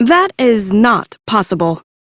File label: Reject, Female; en; Warframe; Railjack Crew; Cephalon Suda